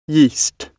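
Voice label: "yeast", English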